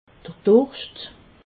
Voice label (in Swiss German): "durscht", alsace